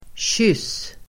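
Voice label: "kyss", Swedish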